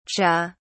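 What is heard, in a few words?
"cha", British English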